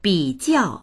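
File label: "bi jiao", Mandarin; 比较; 比較する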